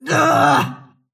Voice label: anger; snapfire

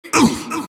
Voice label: monkey crown pain; dota; monkey king

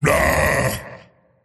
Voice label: anger; tiny

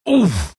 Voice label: tusk pain

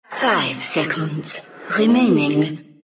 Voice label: announcer count pick 5; diretide 2012

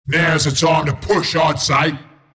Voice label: announcer kill teamwipe; dlc bristleback killing spree

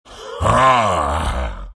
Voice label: happy; beastmaster

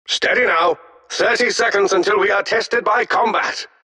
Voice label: announcer count battle 30; dlc defensegrid

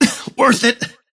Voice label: lasthit pain; dota; kez

